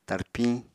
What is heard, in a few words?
"tarpiñ", apatani